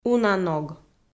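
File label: "уна ног", Komi, female; kpv audio dict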